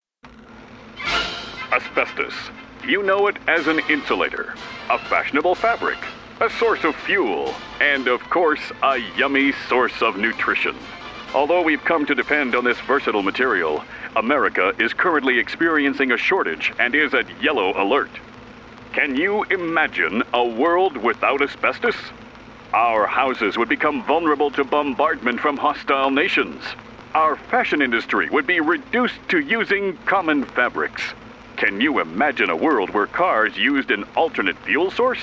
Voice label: newsreel mono